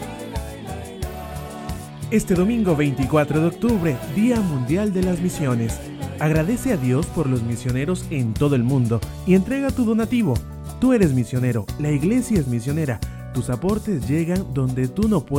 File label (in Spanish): PROMO; DOMUND 2021